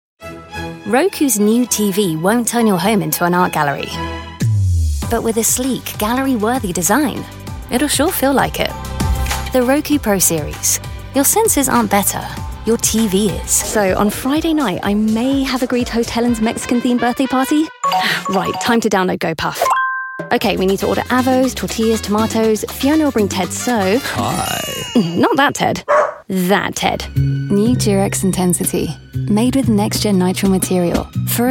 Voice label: commercial